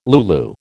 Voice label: "lulu", American